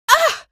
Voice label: shock, female; video games; npc; source engine; episodic; episode 1